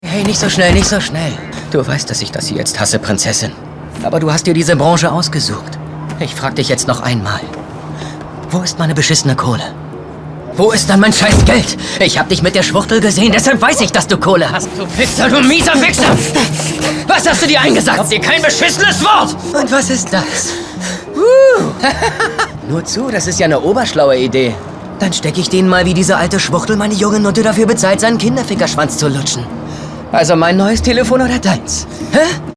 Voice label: Synchron; cut boulevard

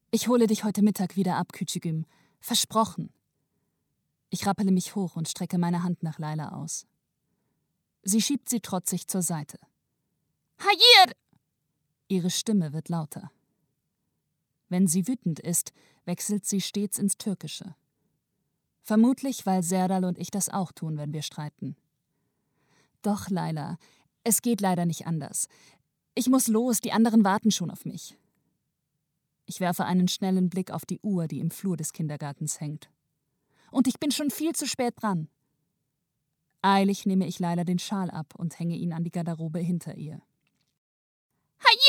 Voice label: Stimmprobe Hoerbuch Thriller

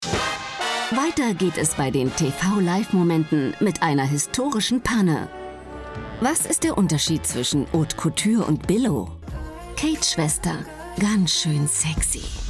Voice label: Doku; Pro 7 Medley

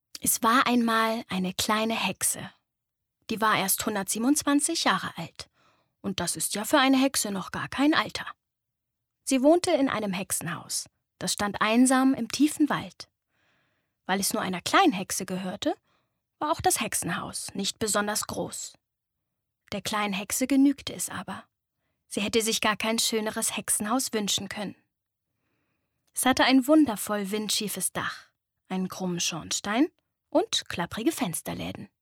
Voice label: Lesung; KLEINE HEXE

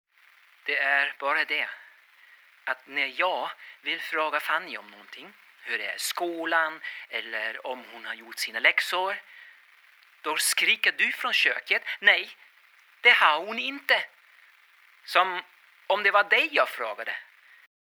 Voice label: radioteater svenska

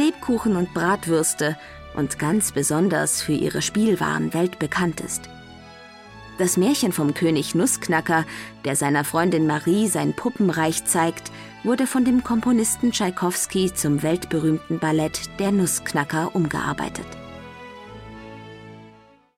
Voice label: Sachtext Franken

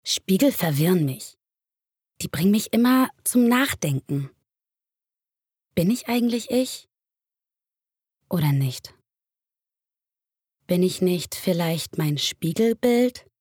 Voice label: Innerer Monolog

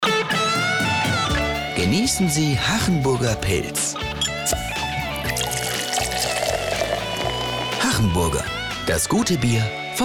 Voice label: Funk Spot; Hachenburger Brauerei

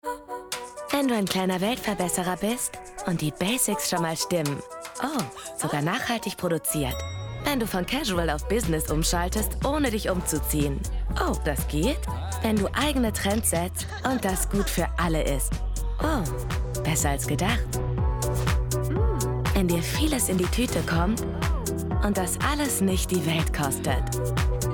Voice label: Commercial (Werbung), German, Hessisch, French

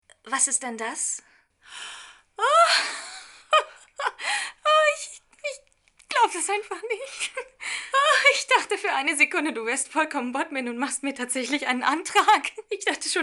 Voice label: Synchron short

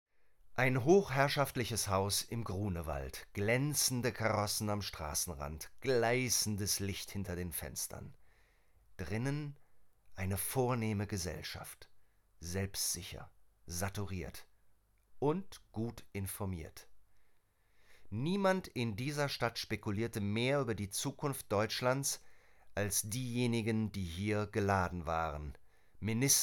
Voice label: Historischer Roman, Audiobook (Hörbuch), German

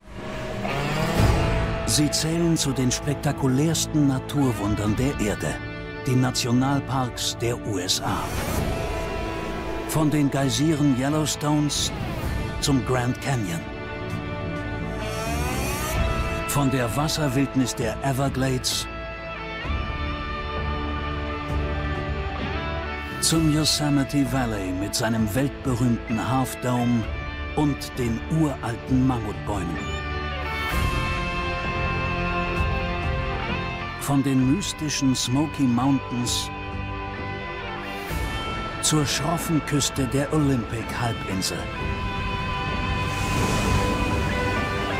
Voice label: Voiceover Kommentar; Amerikas beste Idee – Die Nationalparks der USA; NDR

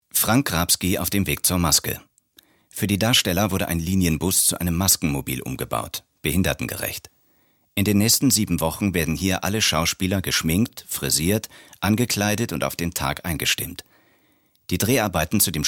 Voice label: kommentar

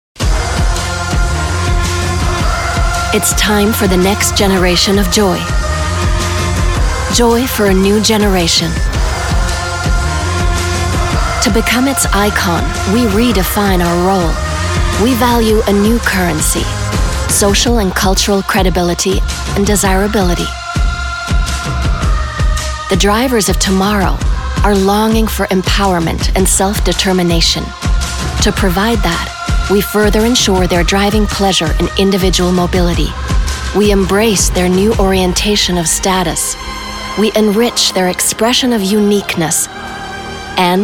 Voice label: Moodfilm englisch; LOFT VOICES

